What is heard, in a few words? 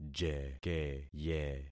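"jekeye", concatenated syllables; Affixing experiment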